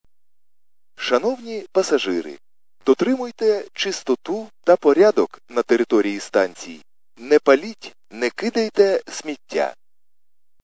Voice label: khs st male, subway station